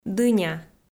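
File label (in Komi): "дыня", Komi, female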